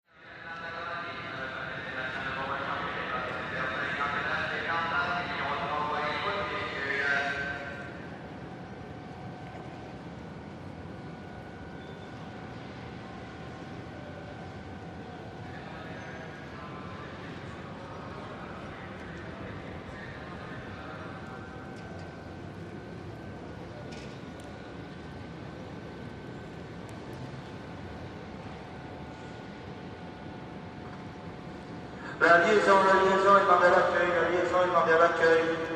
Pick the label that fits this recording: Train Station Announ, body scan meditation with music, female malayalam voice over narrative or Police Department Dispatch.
Train Station Announ